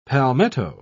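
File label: "palmetto", British English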